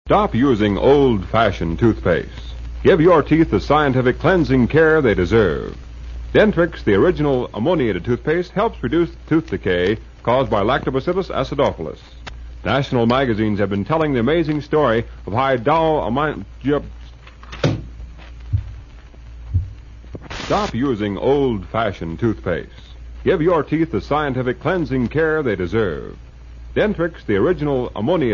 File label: Commercial Outtakes; KHQ Spokane; Dentrix